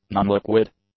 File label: "nonliquid", english; adjectives